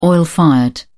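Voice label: "oil-fired", British English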